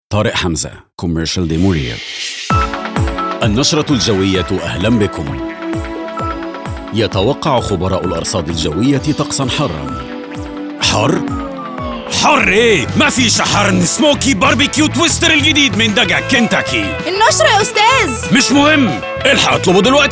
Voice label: Commercial Egyptian Arabic